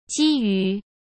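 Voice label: "基于", Mandarin Chinese; hsk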